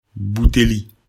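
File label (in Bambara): "buteli", Bambara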